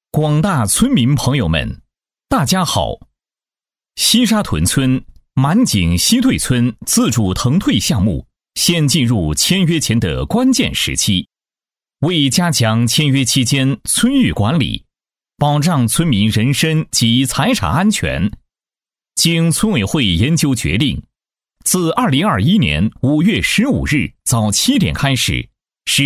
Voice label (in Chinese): 男 村民通知 正气厚重; 正气明亮 大气浑厚